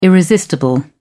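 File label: "irresistible", British English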